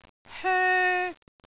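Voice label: "hoe1", Cantonese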